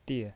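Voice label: "die" Mandarin Chinese, neutral tone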